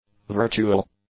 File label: "virtual", English; adjectives